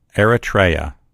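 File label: "eritrea", English; gcompris; geography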